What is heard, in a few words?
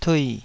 "tui3", taiwanese Syllables